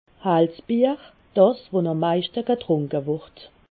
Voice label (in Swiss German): "hells", alsace